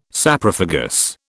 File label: "Saprophagous", British